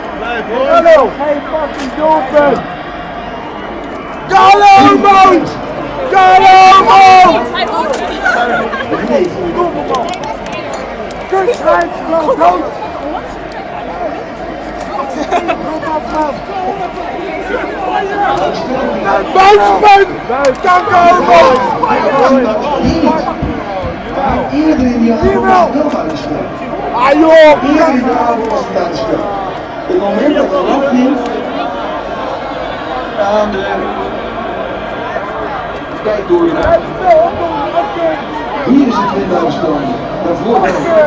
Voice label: spanish goal

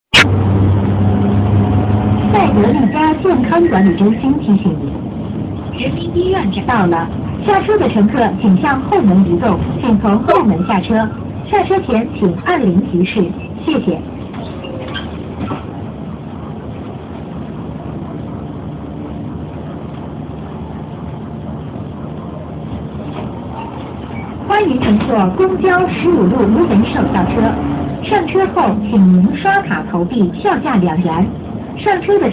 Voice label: quzhou bus announcement

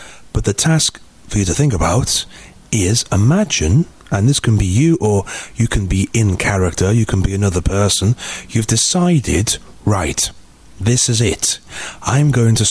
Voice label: english learning to cook

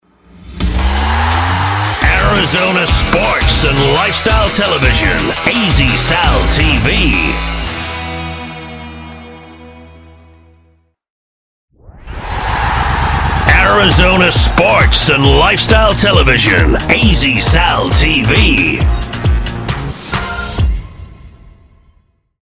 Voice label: Arizona sports lifestyle tv voice over

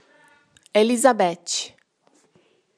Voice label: in brazilian portuguese

